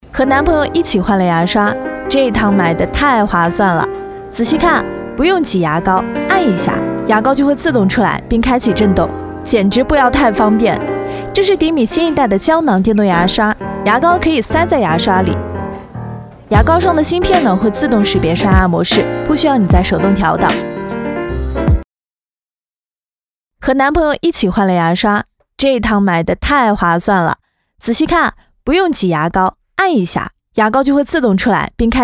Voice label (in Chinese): 信息流素人带货无播音腔年轻甜美